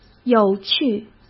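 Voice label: "有趣", Mandarin Chinese; hsk; chinese-flashcards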